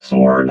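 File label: "sword", robot voice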